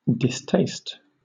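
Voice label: "distaste", British English; pronunciations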